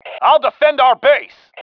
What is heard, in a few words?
defending base, male